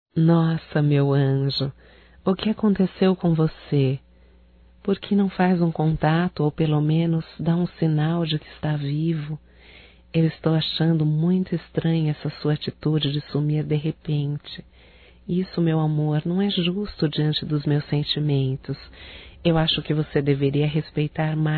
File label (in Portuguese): ROMANTICA(F), Românticas, fem